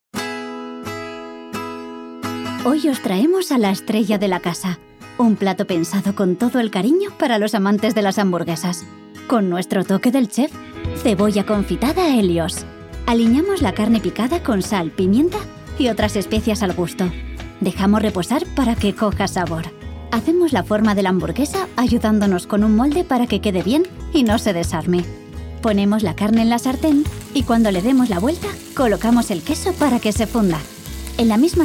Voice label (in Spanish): CASTELLANO CORPORATIVO AMABLE; HELIOS